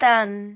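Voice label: "dan4", Cantonese; microsoft Hiu Maan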